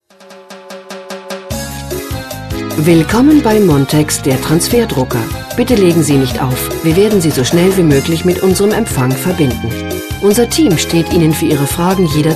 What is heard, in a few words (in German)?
ansage bei besetzt; montex